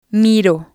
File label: "miro", spanish